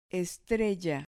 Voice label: "estrella", Spanish